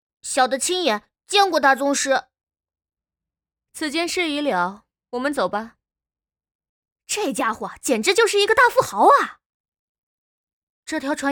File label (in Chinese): 有声小说 声线 展示